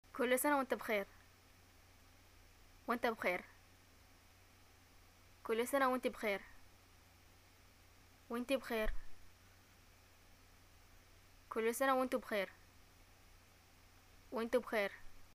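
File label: greeting happy birthday, Yemeni Arabic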